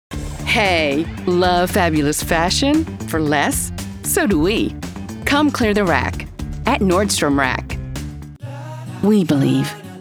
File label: Commercial